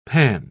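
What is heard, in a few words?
"panne", British English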